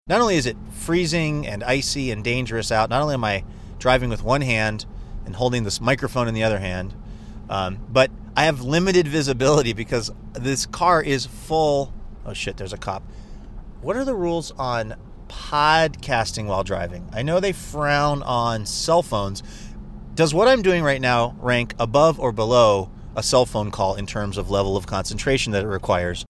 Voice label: driving one hand on steering wheel one hand microphone